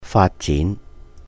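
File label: "faat jín", Cantonese; develop, development; 發展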